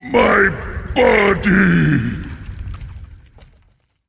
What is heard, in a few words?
Male Elemental Death